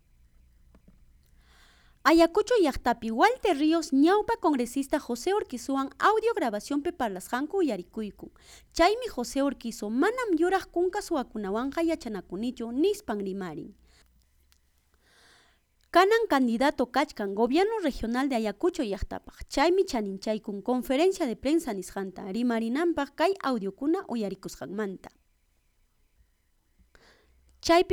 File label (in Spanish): VOZ EN OFF, QUECHUA; ayacucho